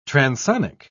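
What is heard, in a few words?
"transonic", British English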